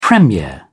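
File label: "premier", British English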